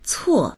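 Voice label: "cuo4", Mandarin Chinese; 错; 誤り、間違い; 間違っている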